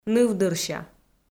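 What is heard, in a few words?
"нывдырся", Komi-Zyrian, female; audio dict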